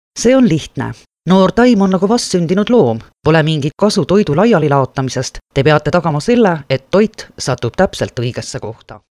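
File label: Estonian, female, narration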